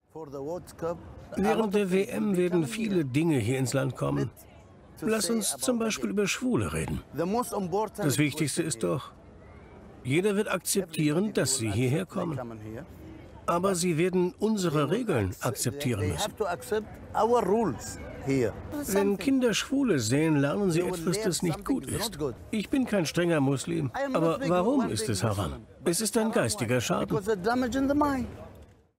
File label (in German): Doku O-Ton; Doku Qatar zdf